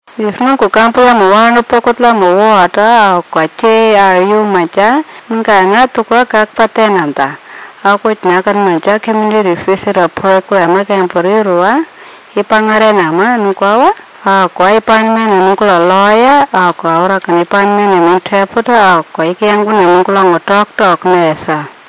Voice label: Anindilyakwa